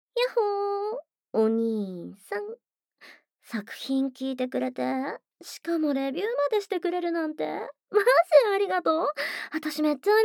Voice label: 特典スペシャルボイス, ASMR; 麗ちゃん

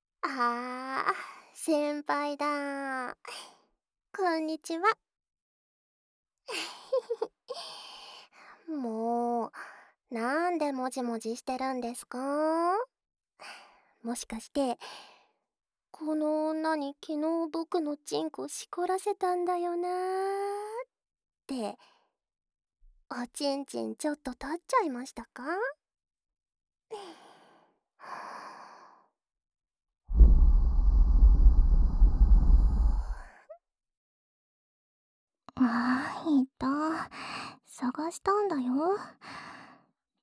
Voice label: 耳舐め, ASMR; オスの性欲丸出し♪上から目線で勝ち組射精耳舐め手コキ♪

